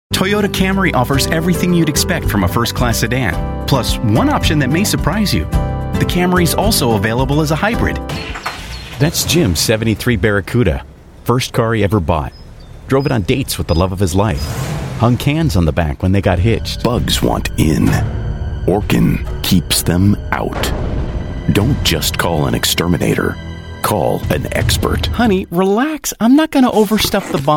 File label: US English Male